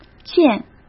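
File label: "溅", Mandarin Chinese; hsk; chinese-flashcards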